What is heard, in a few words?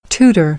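"tutor", American English; AmericanBritish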